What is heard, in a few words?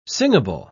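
"singable", British English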